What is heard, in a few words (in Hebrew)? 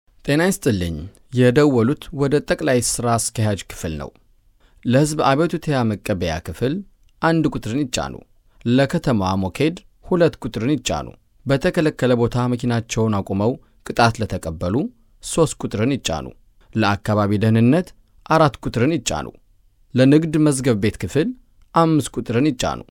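Amharic, קריינות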